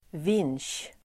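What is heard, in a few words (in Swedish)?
"vinsch", Swedish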